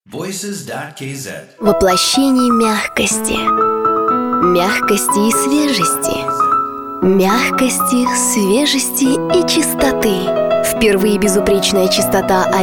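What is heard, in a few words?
russian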